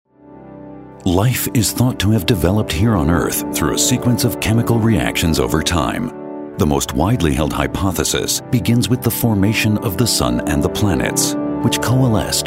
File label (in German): eng dokumentation